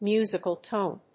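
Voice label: "musical tone", English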